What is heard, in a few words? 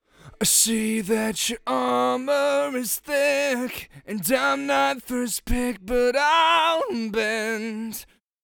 delay vocals dry; aas objeq